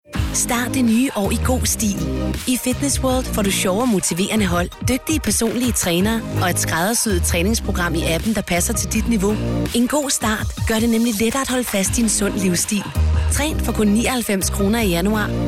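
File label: Danish commercial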